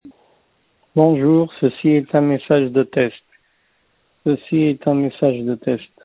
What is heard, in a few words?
voicemail